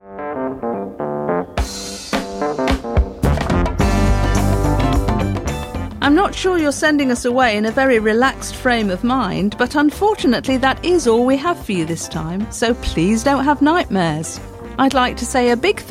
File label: Closing Announcement